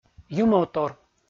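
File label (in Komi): "юмовтор" Komi, male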